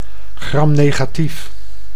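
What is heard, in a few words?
"gramnegatief", Dutch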